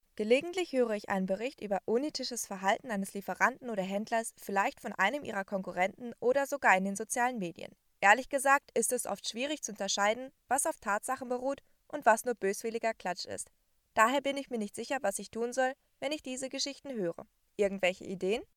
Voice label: GERMAN